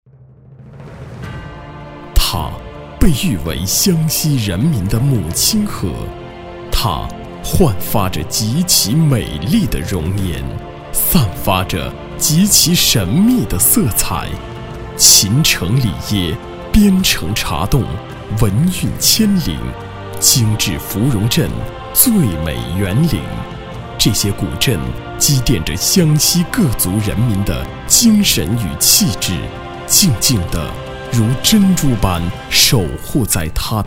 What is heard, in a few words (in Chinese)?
男 专题 湘西宣传片